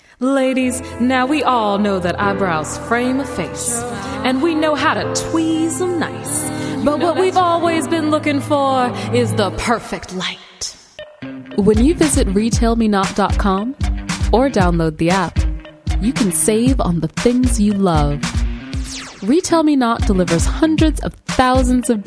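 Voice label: US Commercials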